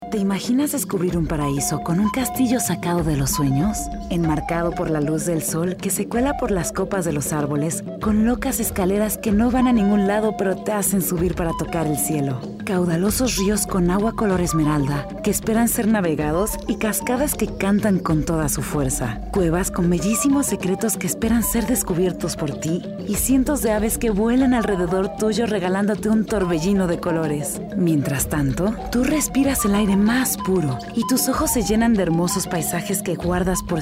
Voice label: spanish mexican documentaries